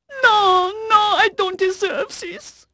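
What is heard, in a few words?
French female; Pirates Of The Caribbean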